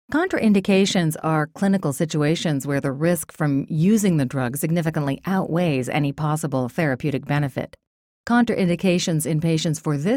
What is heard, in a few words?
Natural Trustworthy